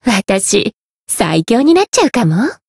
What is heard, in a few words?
growth, jp